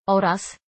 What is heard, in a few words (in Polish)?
"oraz", pl_google; vhf; sr0wx